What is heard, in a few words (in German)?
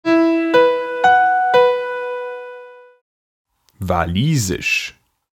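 "walisisch", German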